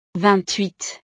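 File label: "vingt huit", French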